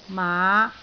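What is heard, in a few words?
"maa5", Cantonese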